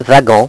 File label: "wagon", French; HyperSound™2